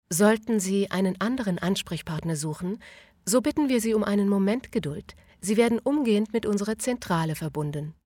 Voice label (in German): deutsch, Telefonansagen, weiblich